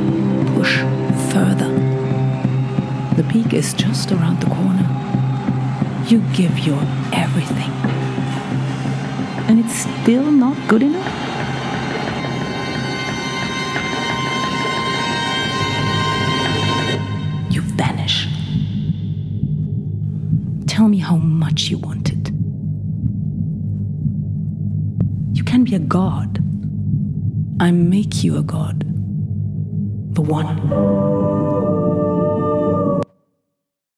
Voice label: Voiceover English Cycling